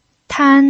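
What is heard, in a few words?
"tan", Mandarin Chinese pinyin, first tone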